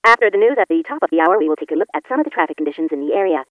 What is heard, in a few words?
speech 50 tempo